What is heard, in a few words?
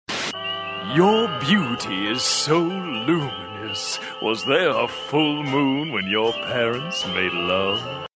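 Unctious Southerner, characters impressions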